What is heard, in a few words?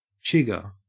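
"chigger", Australian English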